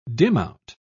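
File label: "dimout", British English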